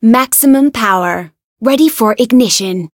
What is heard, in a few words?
Voice chant